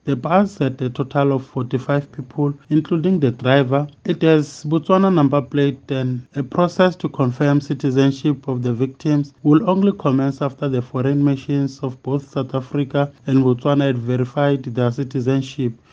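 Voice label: English; 45 People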